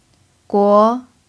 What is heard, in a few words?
"guo2", chinese pinyin